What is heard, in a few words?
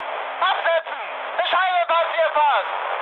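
German searchlite mood high, speech; War Thunder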